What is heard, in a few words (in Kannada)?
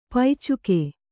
"ಪೈಚುಕೆ", Kannada